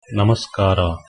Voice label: hello, Kannada

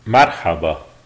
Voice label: hello, lebanese arabic

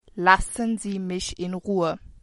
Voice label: leave me alone, german

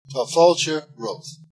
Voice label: "welcome", Irish

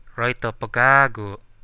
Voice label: say again, central dusun

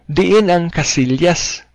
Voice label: toilet, cebuano

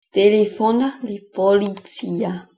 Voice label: police interlingua

